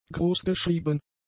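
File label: "großgeschrieben", German; adjectives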